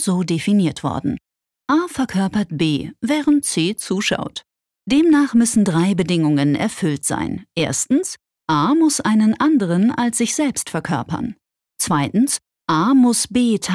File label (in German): Schauspieler Neutral